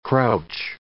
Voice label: "crouch", english